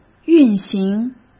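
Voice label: "运行", Mandarin Chinese; chinese flashcards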